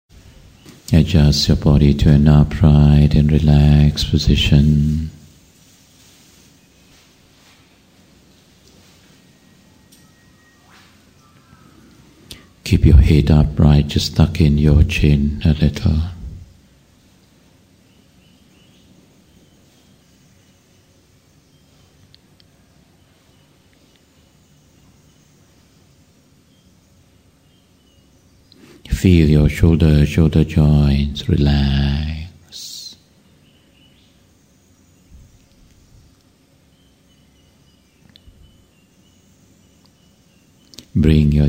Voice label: Metta Meditation Guide